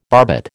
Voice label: "barbet", American

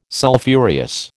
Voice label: "Sulphureous", American

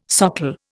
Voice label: "socle", Indian

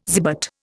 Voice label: "zibet", Indian

